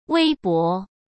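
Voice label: "微博", Mandarin Chinese; hsk; chinese-flashcards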